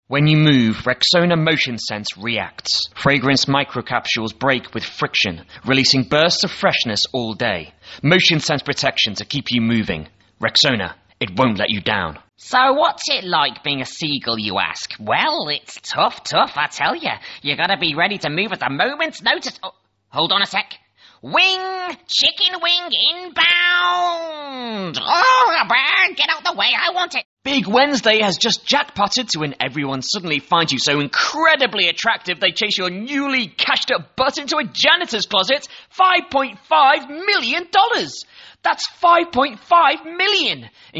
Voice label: VOICE DEMO